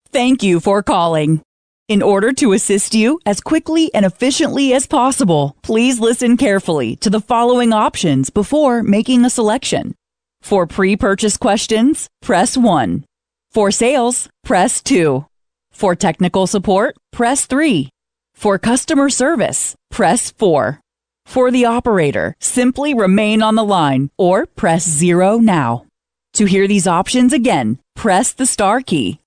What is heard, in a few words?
female ivr